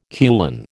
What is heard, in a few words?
"kulan", American